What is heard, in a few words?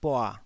"bua3", taiwanese Syllables